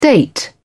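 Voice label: "date", English, British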